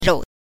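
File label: "rou", Mandarin Chinese, neutral tone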